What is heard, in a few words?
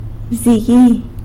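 "bzigui" black widow spider, San Lucas Quiaviní Zapotec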